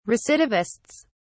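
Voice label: "recidivists", British English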